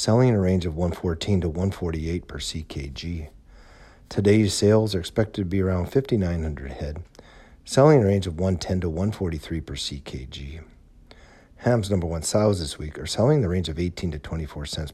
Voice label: Markt Commentary